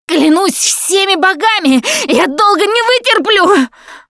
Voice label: going to die, russian; Producer